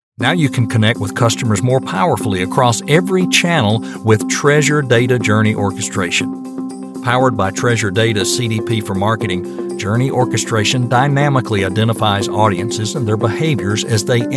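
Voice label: American English, E-learning; GoLocalise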